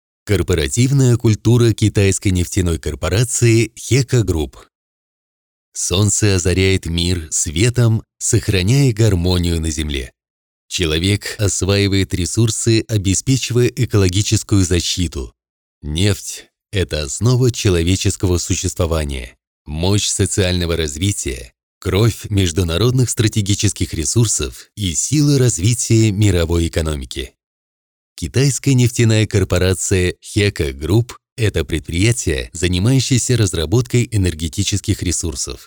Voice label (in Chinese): 俄语 文化 俄文男声配音; 溢家集团文化